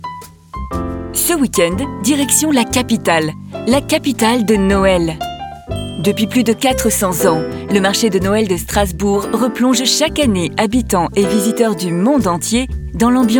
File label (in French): VO PUB; INDIGO STRASBOURG